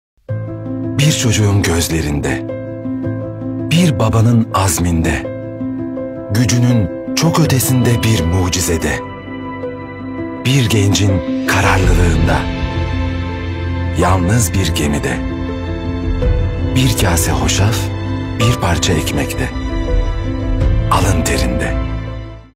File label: male turkish tv promo